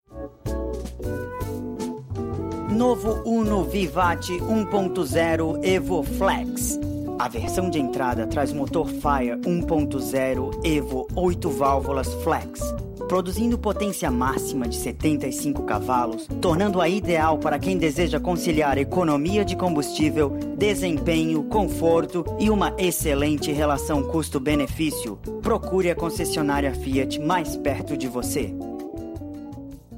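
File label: Brazilian Portuguese Commercial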